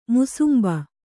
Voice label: "ಮುಸುಂಬಿ", Kannada